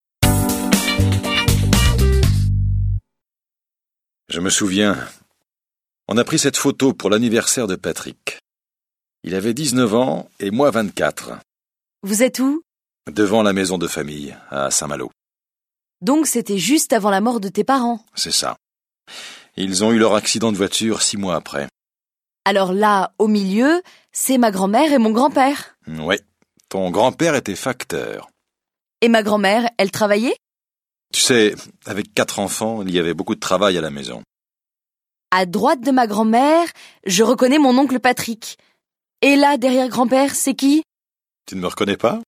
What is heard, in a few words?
French